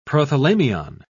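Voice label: "prothalamion", British English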